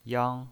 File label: "yang1", pinyin